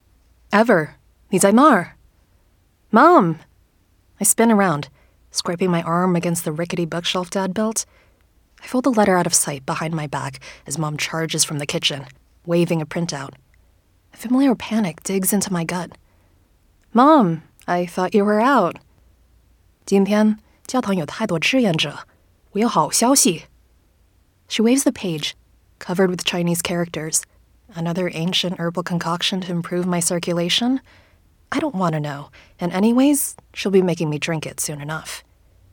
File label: Mandarin English YA Fiction